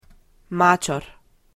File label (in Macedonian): "machor", Macedonian